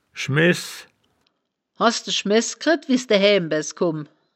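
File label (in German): "schmehs", wittlicher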